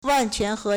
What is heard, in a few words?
"wan4quan2he2", Chinese